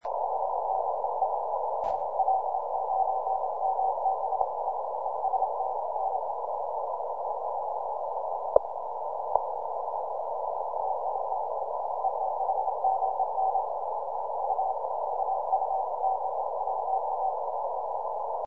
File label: 15M CW